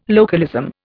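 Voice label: "localism", Indian English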